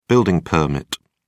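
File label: "building permit", British English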